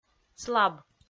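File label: "слаб", Komi-Zyrian, female; kpv audio dict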